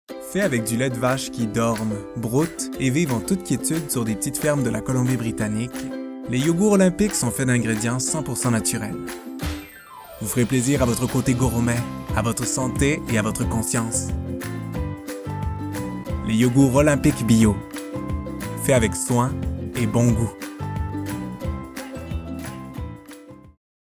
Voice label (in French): pub voix